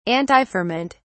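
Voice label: "antiferment", British English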